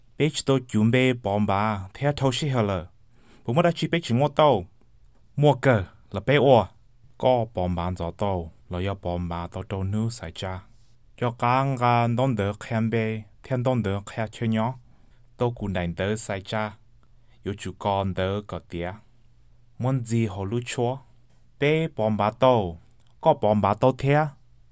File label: Hmong